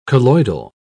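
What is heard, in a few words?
"colloidal", British English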